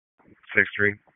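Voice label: EMS DISP, radio; p25; wake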